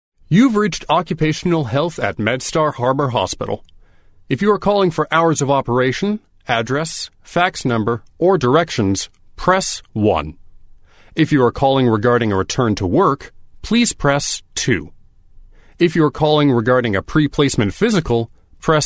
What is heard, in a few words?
Voice Prompt